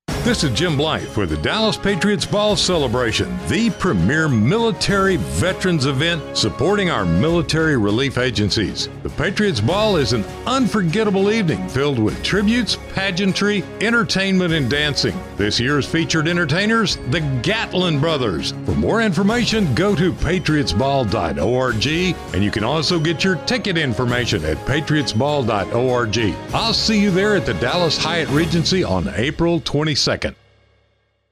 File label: Narrative